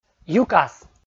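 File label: "юкас", Komi, male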